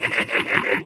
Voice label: ballbot short giddy